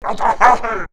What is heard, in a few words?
Zombie